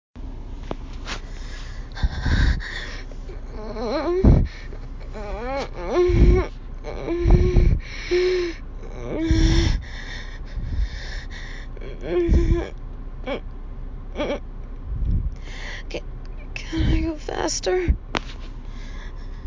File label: Begging